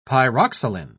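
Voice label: "pyroxyline", British English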